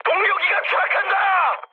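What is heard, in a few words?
Korean aircraft destroyed mood high, speech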